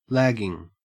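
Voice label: "lagging", Australian English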